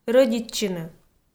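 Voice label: "рӧдитчыны", Komi, female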